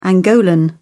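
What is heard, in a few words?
"angolan", British English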